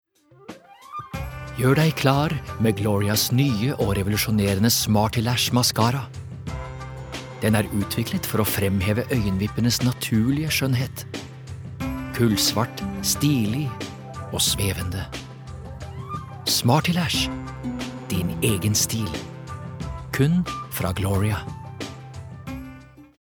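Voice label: Norwegian soft sell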